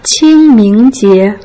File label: "qing1 ming1 jie2", Putonghua